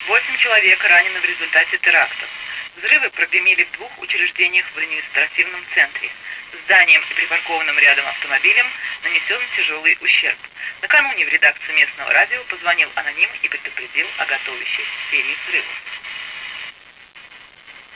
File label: radio russian; de vessel cz